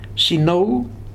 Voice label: "Sinau", futuna aniwa